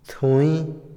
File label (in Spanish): piedra para afilar, Otomí, hombre; INALI